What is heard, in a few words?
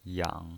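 "yang" Mandarin Chinese pinyin voice, third tone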